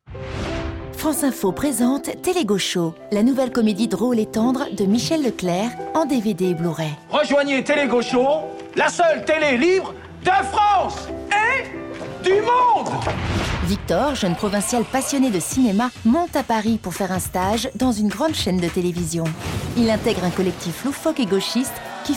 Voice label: ba cinema, voix off comédienne; Télé Gaucho

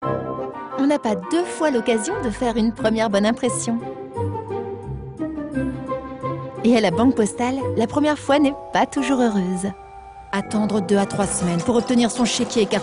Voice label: jeune mutine; Banque Postale

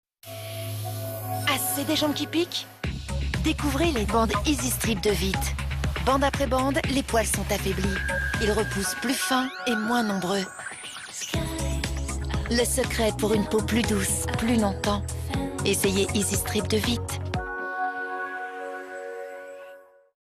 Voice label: légère posée, voix off comédienne; Veet Easy Strip

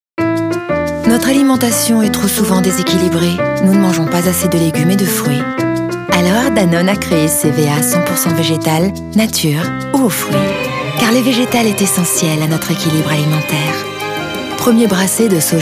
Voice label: douce; DanoneNaurelle

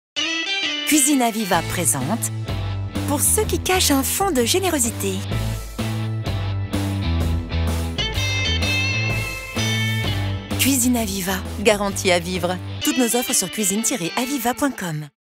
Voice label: Voix-off féminine pro

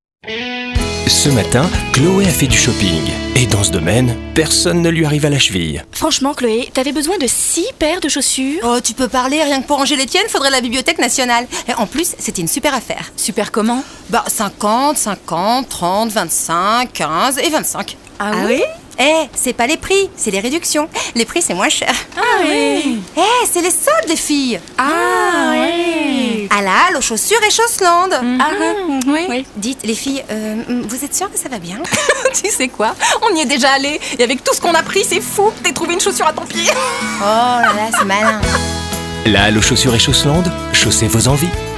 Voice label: Comedie fifilles, voix off comédienne; La Halle chauss'land

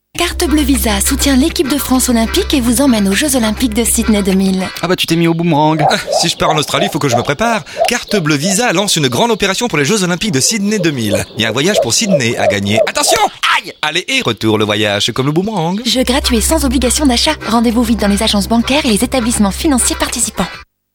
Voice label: fraiche legere, voix off comédienne; Jeux Olympiques

